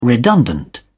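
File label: "redundant", English, British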